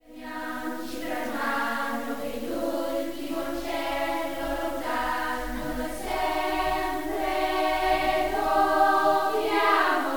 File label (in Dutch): repetitie nasal